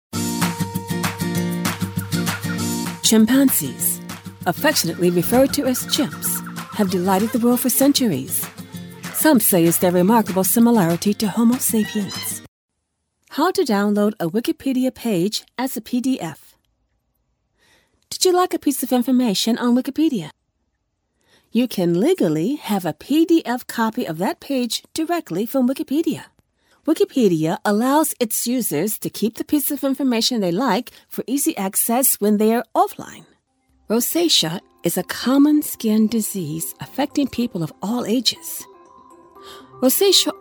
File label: Corporate Explainer Elearning Compilation